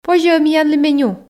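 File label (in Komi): позьӧ-ӧ миянлы меню, Komi, female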